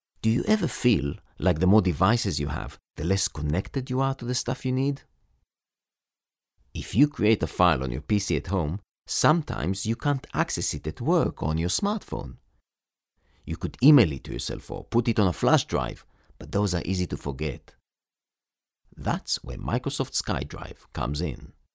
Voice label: male hebrew voice over talent english